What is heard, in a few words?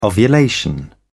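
"ovulation", British English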